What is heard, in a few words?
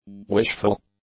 "wishful", english; adjectives